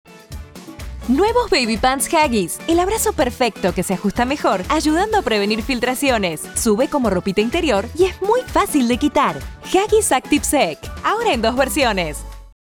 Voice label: Spot tv con musica; Huggies

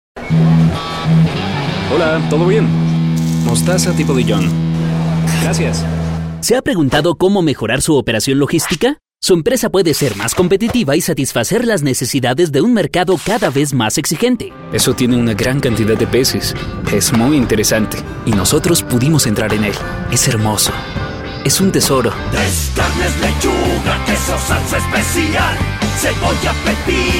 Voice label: Reel Doblaje y Caracterizaciones

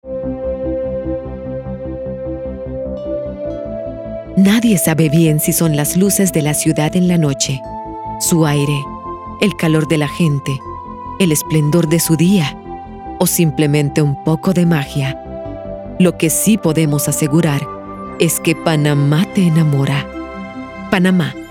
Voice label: Locucion Plana

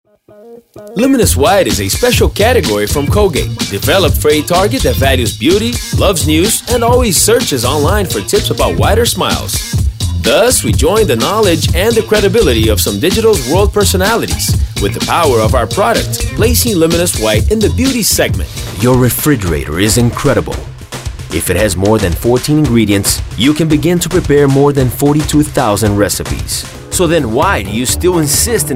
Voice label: Ingles

